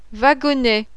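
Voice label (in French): "WAGONNET", French; MINE (INDUSTRIE)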